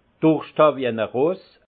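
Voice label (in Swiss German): "durscht han", alsace Haut-Rhin; vin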